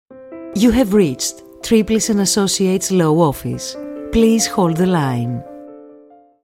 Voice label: en